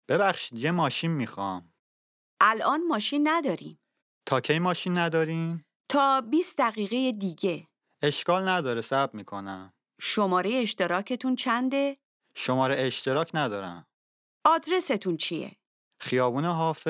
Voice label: Dialogue lesson Farsi